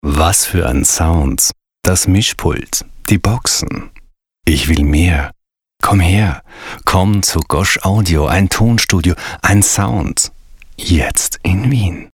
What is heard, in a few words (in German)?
zärtlich